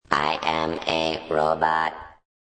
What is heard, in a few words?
Robot Speaks